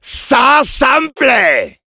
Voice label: positive vocalization, fr; Demoman